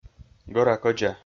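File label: "гӧра-кӧдза", Komi-Zyrian, male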